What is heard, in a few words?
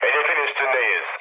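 Turkish near waypoint, mood low, speech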